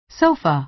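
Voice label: "sofa", American English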